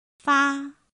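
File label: "fā", Chinese; pinyin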